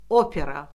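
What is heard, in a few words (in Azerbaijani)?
"opera", Azerbaijani; Orfoqrafiya Lüğəti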